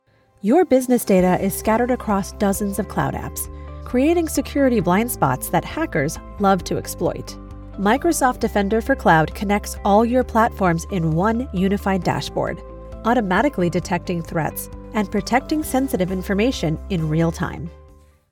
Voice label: Animated Explainer; Microsoft